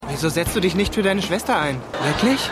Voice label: Junge; Keine Gnade Fuer Dad

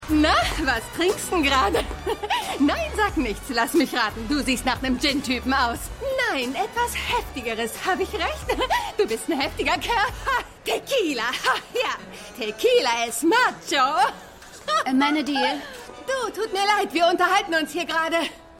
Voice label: Geschwaetzige Junge Frau; Lucifer

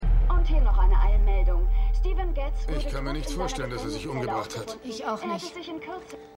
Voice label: TV Nachrichtensprecherin; LO SVU

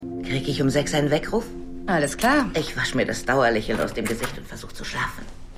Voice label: Assistentin; Harding; Falling Water

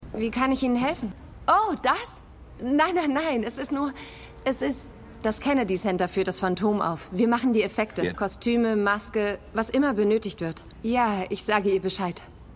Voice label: Empfangsdame, Stimmproben

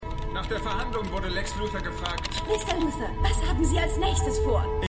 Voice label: Nachrichtensprecher Reporterin; Supergirl

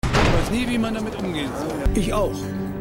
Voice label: AeltererMann Krankenpfleger; Stimmproben; Eureka

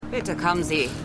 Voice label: Polizistin; Lucifer

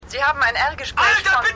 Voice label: Telefonstimme, Stimmproben; Seinfeld

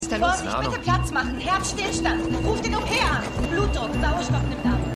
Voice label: Krankenschwester, Stimmproben; LO SVU

